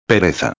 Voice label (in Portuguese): "pereza", espanhol